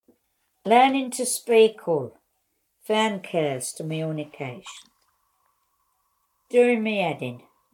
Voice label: England Yorkshire Female 70s